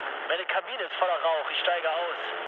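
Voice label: German on fire mood low, speech; War Thunder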